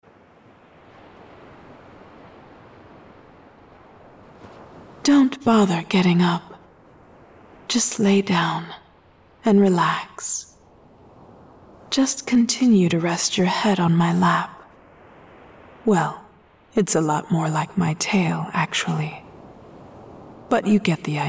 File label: Weak Voiced Yandere Siren Lures You